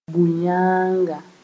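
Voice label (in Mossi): "bʋ-yãanga", Mooré; she goat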